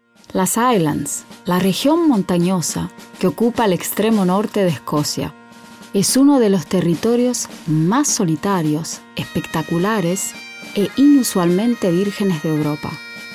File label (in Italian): Documentario